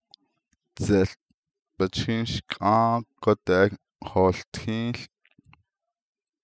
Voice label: It's raining up on the point of the mountai, navajo